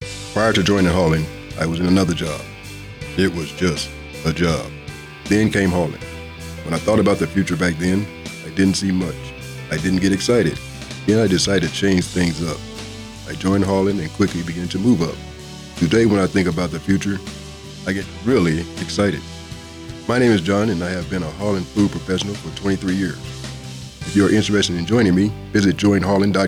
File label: English Spot